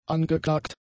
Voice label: "angeklagt", German; adjectives

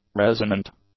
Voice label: "resonant", English; adjectives

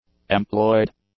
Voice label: "employed", English; adjectives

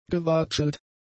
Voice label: "gewatschelt", German; adjectives

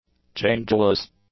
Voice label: "changeless", English; adjectives

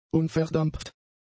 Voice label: "unverdampft", German; adjectives

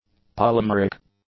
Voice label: "polymeric", english; adjectives

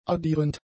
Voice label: "addierend", german; adjectives

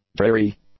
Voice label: "dreary", English; adjectives

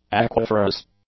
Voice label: "aquiferous", English; adjectives

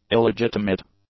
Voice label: "illegitimate", English; adjectives

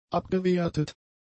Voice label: "abgewertet", German; adjectives

